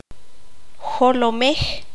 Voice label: "cabeza", Q'anjob'al

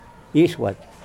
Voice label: Nawat palabras hoja; pipil

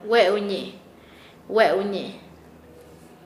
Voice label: dicho, Nasa Yuwe; paez

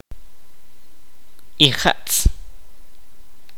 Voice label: "semilla", Kaqchikel

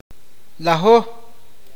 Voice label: "diez", awakateko

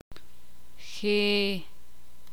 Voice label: "cola", ixil

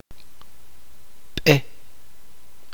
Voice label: "camino", chuj

